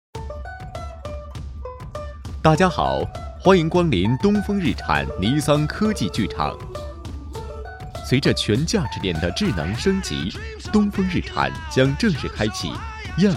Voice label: 男 青春活力风格; 东风日产

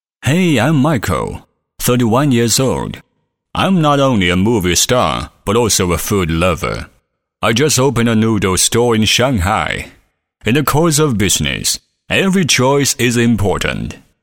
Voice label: 英男 专题 人物自我介绍 自然; 模仿ABC主持人

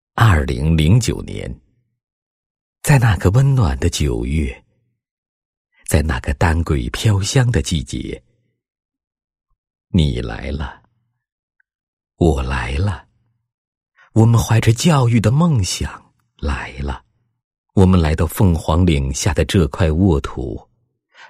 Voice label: 男 散文 朝阳 全体教师会议, 配音案例

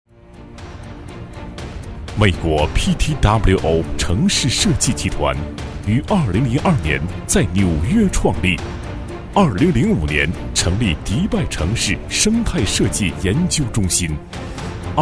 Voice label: 男 专题 城市设计集团, 国语男声配音; 磁性; 美国Ptwo

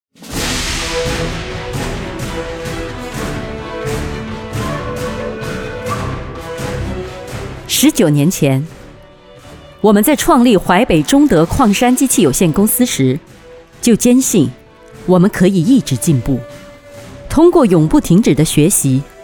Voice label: 宣传片; 淮北中德; 普通话

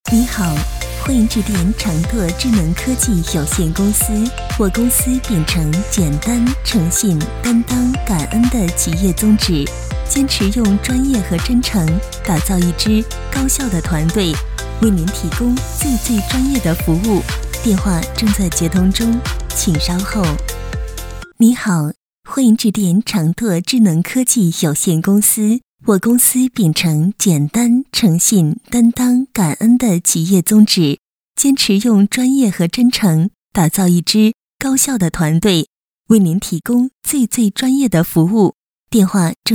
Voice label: 女 彩铃

